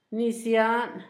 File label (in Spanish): mezcal, Zapoteco, Asunción Ocotlan; Alimento; INALI; Haspelmath